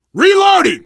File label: reloading, biker, survivor voice